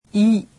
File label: "ri", japanese; alphabet